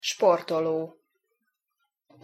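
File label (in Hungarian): "sportolo", Hungarian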